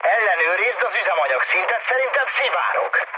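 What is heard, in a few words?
tank damaged, mood med, Hungarian; War Thunder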